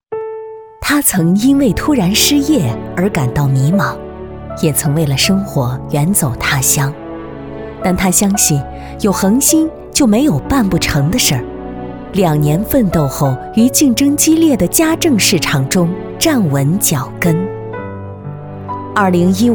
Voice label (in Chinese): 女 人物专题深情大气